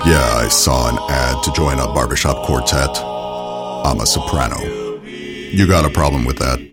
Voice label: animation pirate; cutthroat bill the pirate